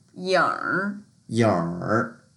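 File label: "yingr3", Mandarin Chinese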